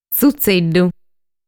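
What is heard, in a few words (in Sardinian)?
"Tzutzeddu", Sardinian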